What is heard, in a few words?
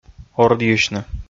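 "ордйысьны", Komi, male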